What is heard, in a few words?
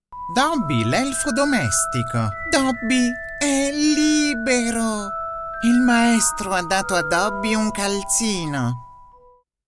italian video games, voice actor